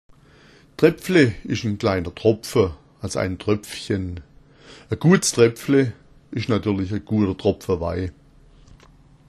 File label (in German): drepfle, schwaebisch